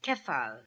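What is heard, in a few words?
"Kefal", pronunciations; Fourth Way; Beelzebub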